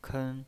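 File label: "ken", first tone, pinyin voice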